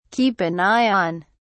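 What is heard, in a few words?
"keep an eye on", British English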